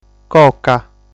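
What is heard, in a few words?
"Coca", Sardinian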